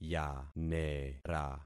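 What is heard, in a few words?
"yanera", concatenated syllables; Affixing experiment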